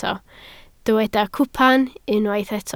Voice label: "cwpan", Welsh